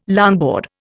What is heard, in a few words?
"longboard", Indian